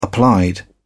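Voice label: "applied", British English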